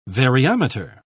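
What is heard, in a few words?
"variometer", British English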